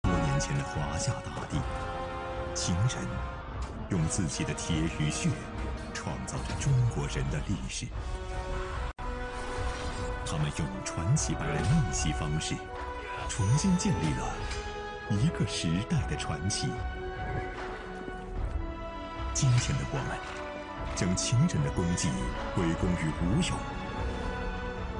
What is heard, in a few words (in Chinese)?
纪录片; 央视纪录片廉鉴第集责实之律